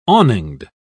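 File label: "awninged", British English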